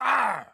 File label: Hurt; Batman